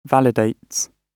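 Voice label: "validates", British English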